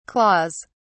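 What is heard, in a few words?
"claws", English, uk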